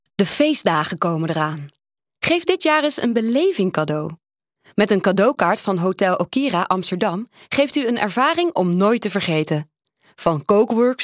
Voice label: commercial